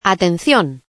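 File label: Attention (Spanish), Voice; Danger Hiptop-Slide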